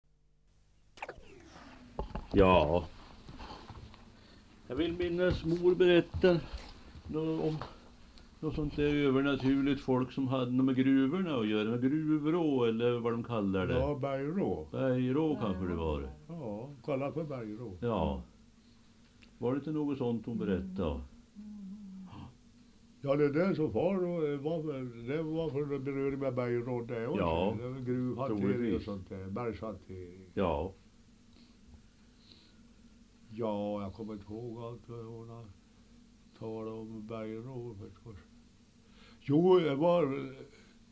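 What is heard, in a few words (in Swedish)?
Ovansjö Gästrikland, dialekter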